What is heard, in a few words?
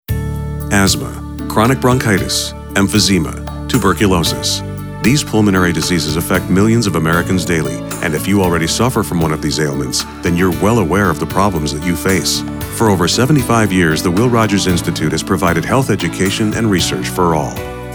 Radio